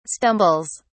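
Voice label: "stumbles", English, uk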